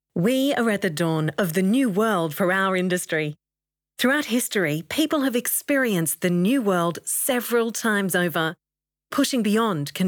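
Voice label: Australian Female Voice Talent Phone Video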